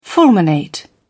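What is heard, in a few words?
"fulminate", British English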